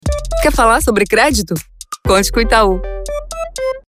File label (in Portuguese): Assinatura jovial conversado simpatico; Itau